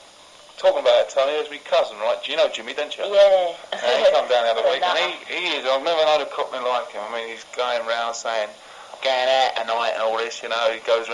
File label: London South East Home Counties, english accents